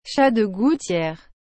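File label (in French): "chat de gouttière", French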